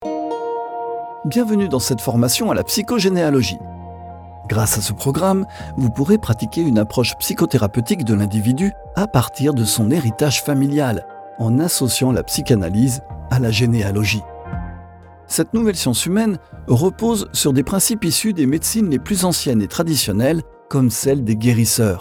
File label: Elearning; Démo E-learning; La psycho généalogie